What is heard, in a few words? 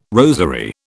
"rosary", British